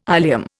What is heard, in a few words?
"ilium", Indian